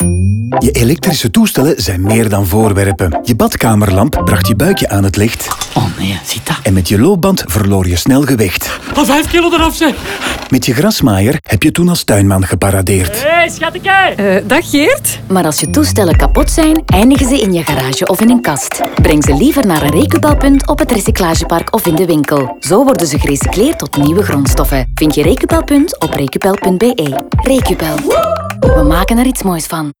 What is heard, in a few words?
radio nl music intro; recupel